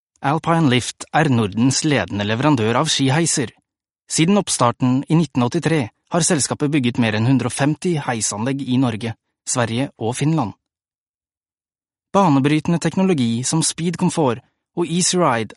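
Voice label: Norwegian, male, corporate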